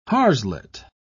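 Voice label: "harslet", British English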